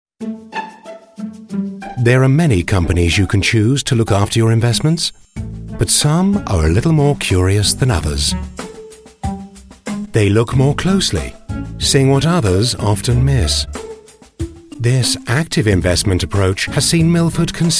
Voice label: Finance NZ TVC; Milford

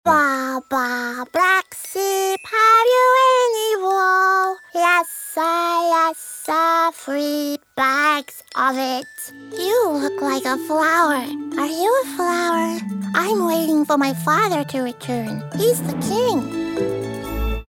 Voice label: little kids

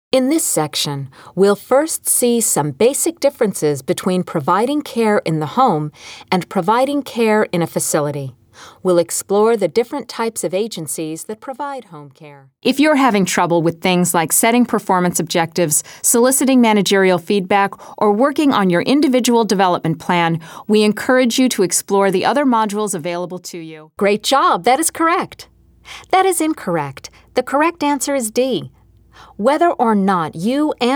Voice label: eLearn

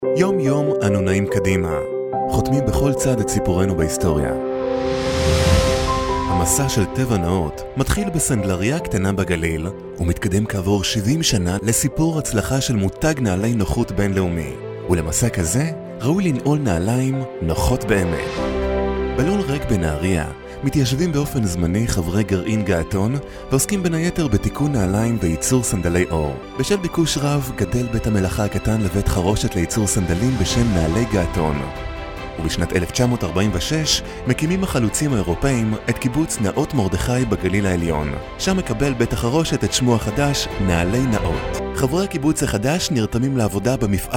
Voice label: hebrew corporate

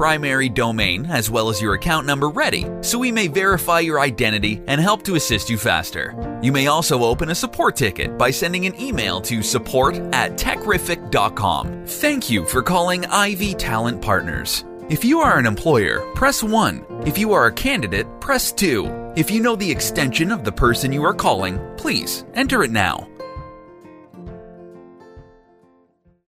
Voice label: On-Hold Messaging

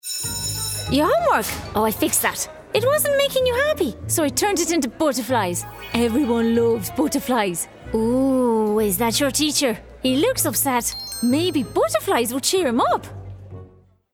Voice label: Animation Leprechaun Irish

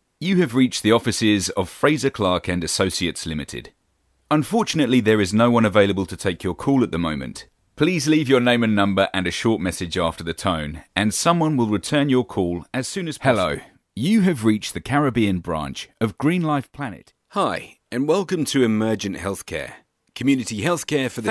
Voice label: BRITISH MALE IVR

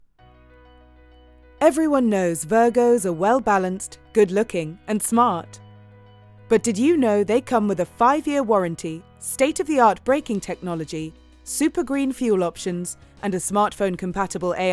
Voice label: British Woman Car Commerical

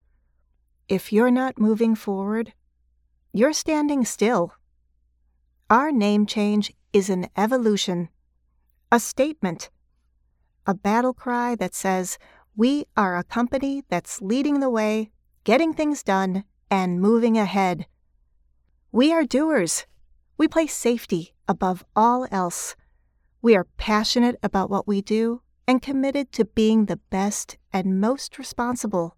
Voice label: Business Corporate

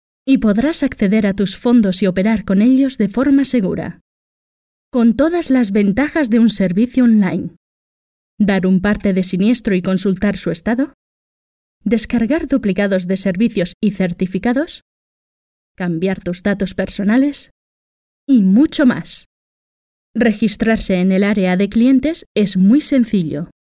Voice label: FEMALE CASTILIAN; PARTESEGUROS

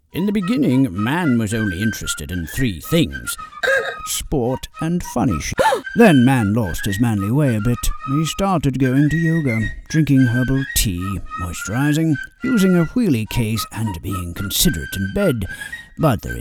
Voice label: British

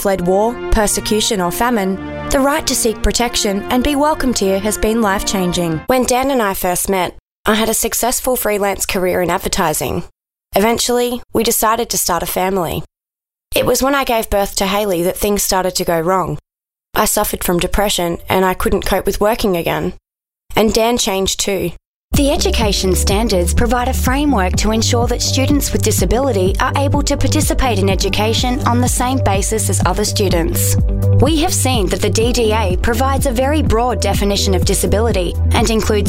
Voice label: Mixed Voice Aussie Voices

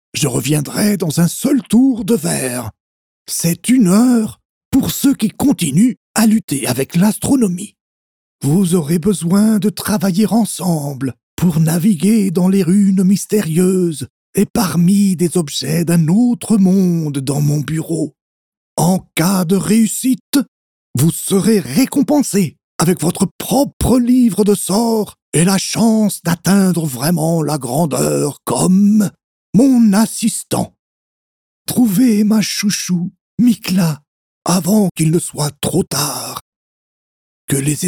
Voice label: French Role Acting VO

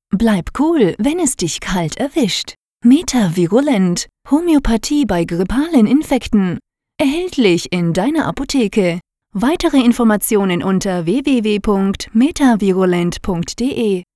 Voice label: Commercial dry

